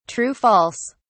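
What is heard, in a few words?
"true-false", British English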